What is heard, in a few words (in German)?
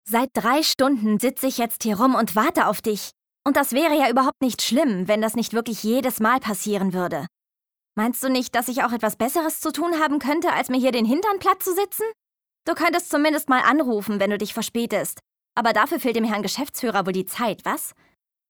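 synchron wütend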